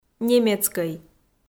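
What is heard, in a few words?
"немецкӧй", Komi, female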